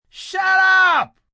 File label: Shut Up Yelling, Shut up, male, yelling; VoiceBox